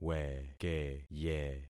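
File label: "wekeye", concatenated syllables; Affixing experiment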